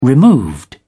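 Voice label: "removed", English, British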